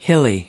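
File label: "hilly", American English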